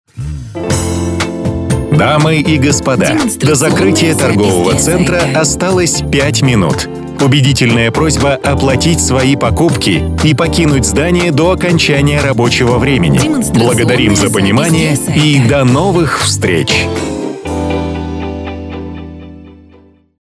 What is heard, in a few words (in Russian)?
Ролик закрытия торгового центра 5мин мужской